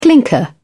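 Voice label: "clinker", British English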